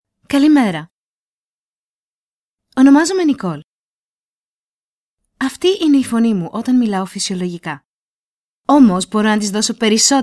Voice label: greek generic